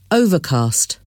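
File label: "overcast", English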